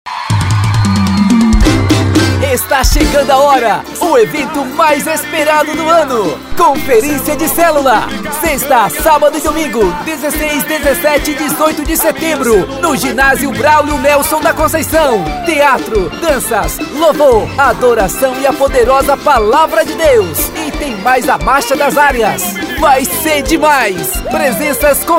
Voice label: chamadas vinhetas para festas voz locutor

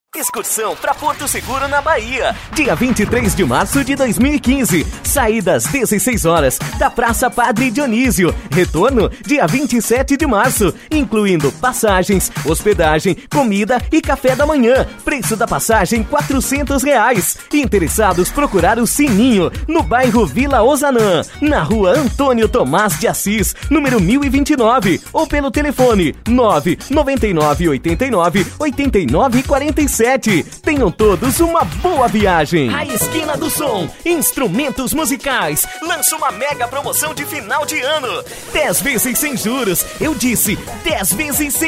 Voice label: publicidades locutor; puffy publicidades

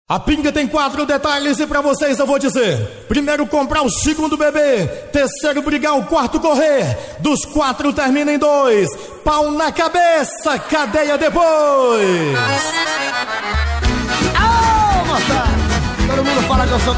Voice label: locutor verso

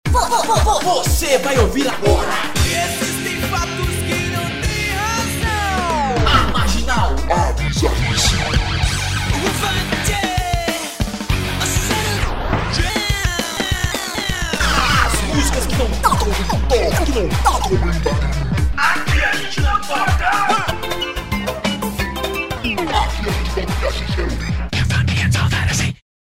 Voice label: radio chamada de programa

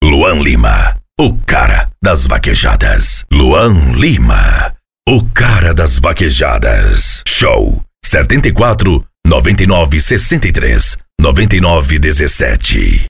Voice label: VOZ IMPACTO GRAVE vinhetas para cantor das vaquejadas off vinheta para cantor de vaquejada

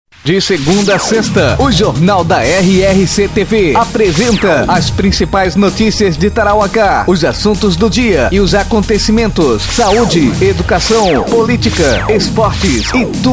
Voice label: comercial; jornal da rrc tv

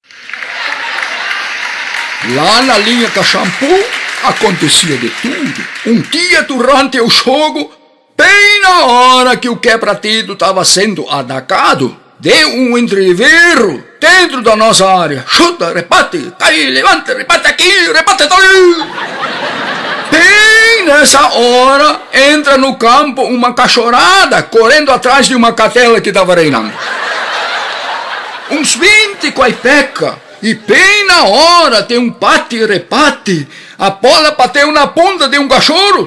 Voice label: conto humoristico; jogo bom pra cachorro

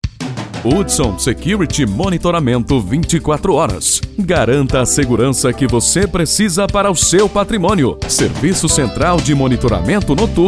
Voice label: spot; hudsonsecuryty

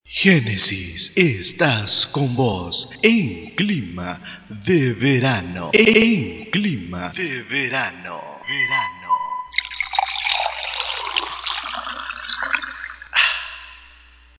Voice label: vinheta espanhol; clima do verao

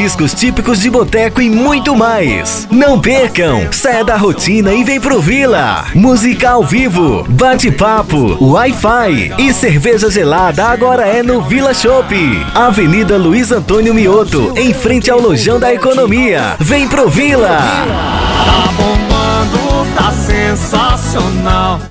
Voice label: VOZ IMPACTO GRAVE vinheta para restaurante e petiscaria vinhetas spots chamada propaganda

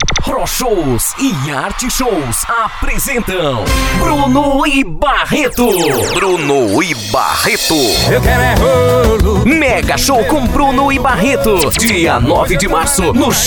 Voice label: locução Brasil

